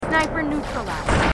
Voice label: marines female